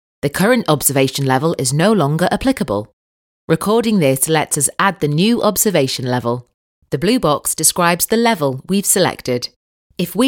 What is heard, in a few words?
northern voice e-learning